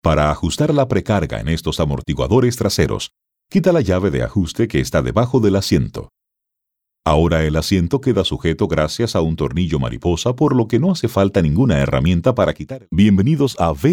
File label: spanish elearning